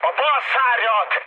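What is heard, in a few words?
Hungarian left wing mood high, speech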